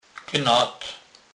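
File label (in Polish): "gnat", Kurpie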